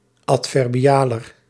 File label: "adverbialer", Nl